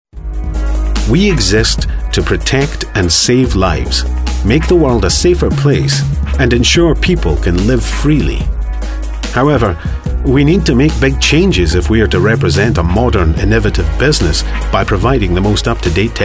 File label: Corporate